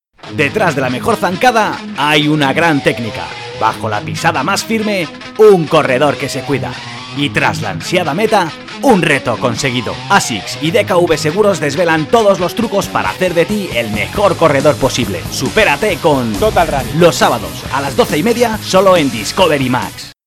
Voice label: Promo TV; Discovery Max